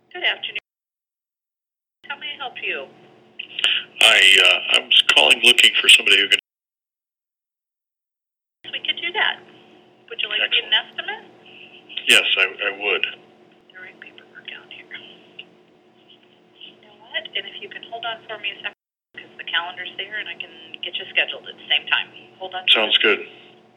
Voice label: Lead Generation Call